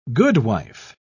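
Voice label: "goodwife", British English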